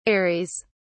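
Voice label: "ares", English, British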